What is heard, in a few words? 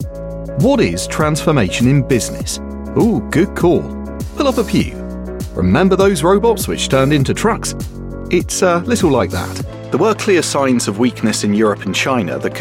Explainer Videos